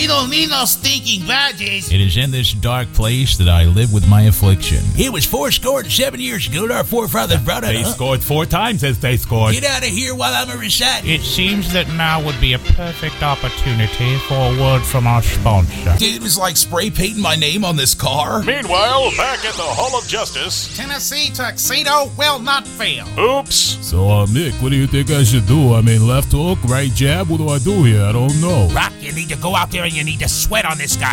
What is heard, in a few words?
Quick Character